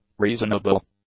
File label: "reasonable", English; adjectives